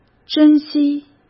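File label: "珍惜", Mandarin Chinese; chinese-flashcards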